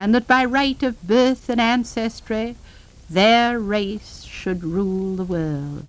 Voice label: Speech New York, american english dialect; Eleanor A Roosevelt